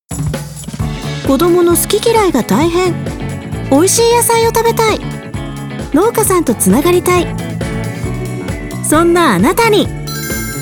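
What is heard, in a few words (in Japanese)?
Japanese, Commercial; GoLocalise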